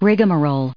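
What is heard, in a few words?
"rigmarole", American English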